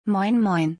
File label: Hello common in northern Germany in German